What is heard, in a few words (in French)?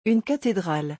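"Une cathédrale", French; vocabulaire; le lexique des vacances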